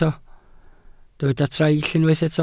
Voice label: "traill", Welsh